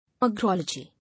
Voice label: "Agrology", English